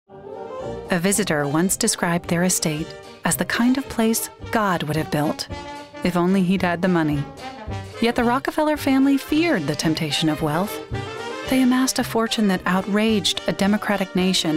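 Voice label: light documentary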